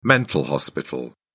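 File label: "mental hospital", British English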